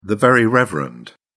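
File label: "very reverend", British English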